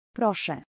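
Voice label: "prosze", Polish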